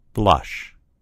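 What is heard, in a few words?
"blush", American English; gcompris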